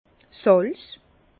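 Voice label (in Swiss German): "salz", Alsace Bas Rhin; le sel; vin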